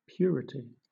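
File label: "purity", British English; pronunciations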